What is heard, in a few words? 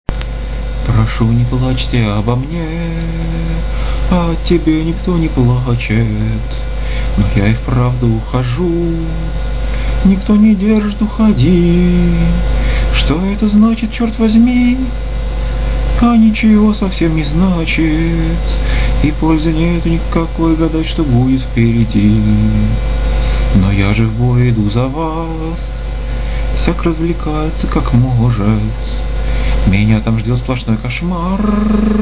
dialog